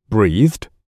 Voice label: "breathed", English; С окончанием -ed